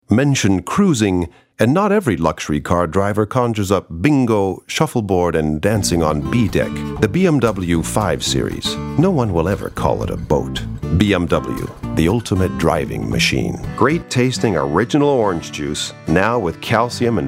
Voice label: Commercials